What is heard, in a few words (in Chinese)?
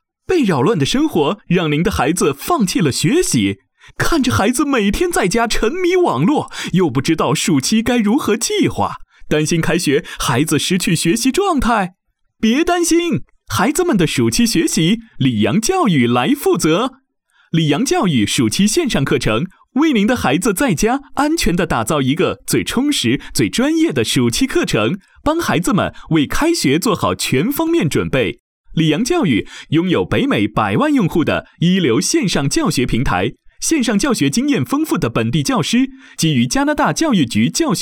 年轻广告阳光; 李洋教育